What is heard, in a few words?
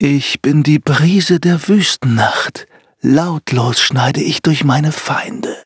loading, de; Cookie Run